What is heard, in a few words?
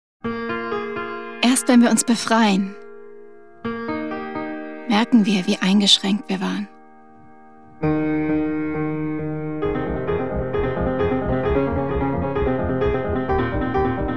COMMERCIAL German; BaByliss